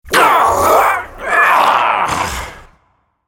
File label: dying, en US; Khazix; Character Voices - English